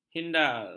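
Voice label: "hindar", Malay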